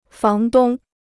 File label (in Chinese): "房东", Chinese